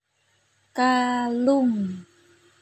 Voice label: Kalung, Contoh pengucapan, Basa Jawa